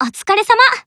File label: Emo Greet